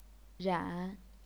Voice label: "ran3", chinese pinyin